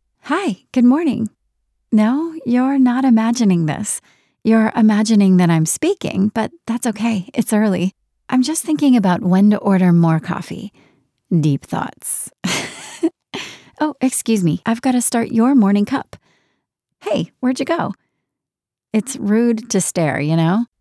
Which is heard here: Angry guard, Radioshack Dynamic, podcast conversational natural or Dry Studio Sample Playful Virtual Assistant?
Dry Studio Sample Playful Virtual Assistant